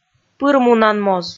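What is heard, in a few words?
"пырмунанмоз", Komi, female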